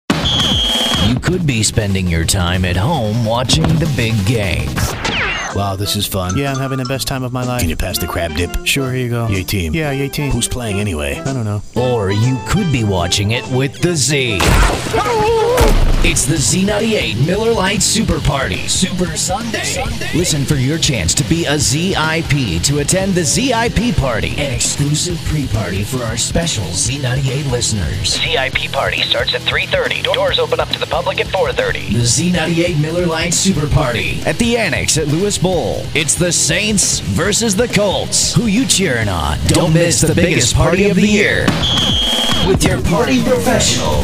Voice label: Imaging Male, Commercial Voiceover, Radio Imaging